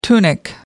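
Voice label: "tunic", British English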